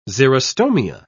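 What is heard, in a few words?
"xerostomia", British English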